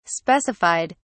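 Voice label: "specified", English, British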